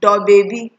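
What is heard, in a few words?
"dollbaby", Nigerian Pidgin, female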